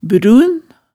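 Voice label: "brun", Hemsedal dialect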